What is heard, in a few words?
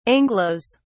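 "anglos", British English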